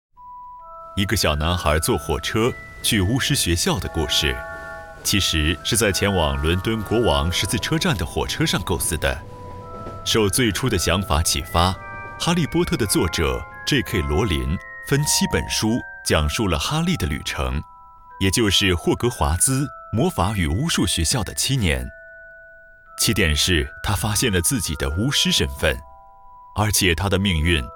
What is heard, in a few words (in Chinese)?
Simplified Chinese Audio